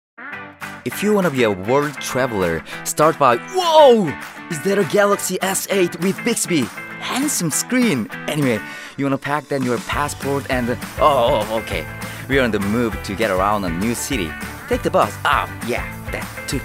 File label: Commercial Voicereel